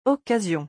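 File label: "occasion", French; vocabulaire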